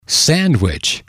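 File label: "sandwich", English, male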